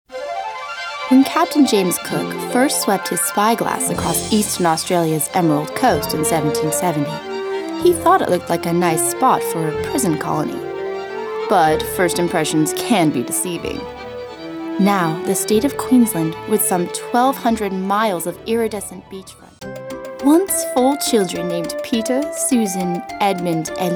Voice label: Narration